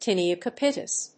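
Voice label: "Tinea Capitis", English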